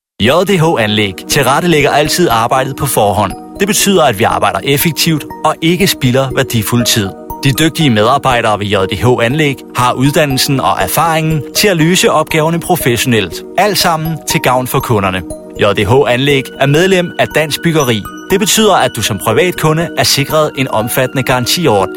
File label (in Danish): Virksomhedsfilm